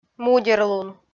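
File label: "мудерлун" Komi, female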